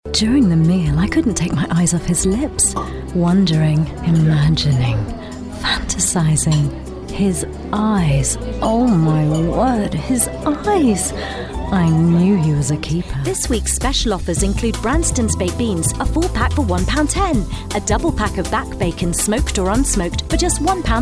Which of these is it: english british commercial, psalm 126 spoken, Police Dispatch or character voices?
english british commercial